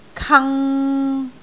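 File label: "kang3", Cantonese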